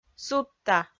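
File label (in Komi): "судта", Komi, female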